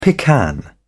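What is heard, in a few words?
"pecan", British English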